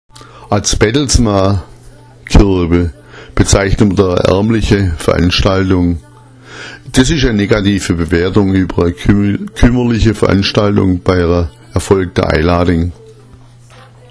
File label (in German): bettlmakirbe, schwaebisch